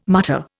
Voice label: "mutter", Indian